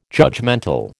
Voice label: "judgemental", American

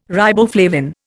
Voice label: "riboflavin", Indian

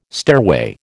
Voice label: "stairway", American

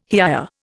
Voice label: "hiya", Indian

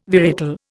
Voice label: "varietal", Indian

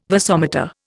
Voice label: "vasomotor", Indian

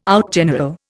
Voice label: "outgeneral", Indian

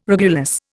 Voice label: "regulus", Indian

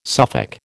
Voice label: "Suffolk", American

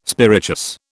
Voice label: "spirituous", British

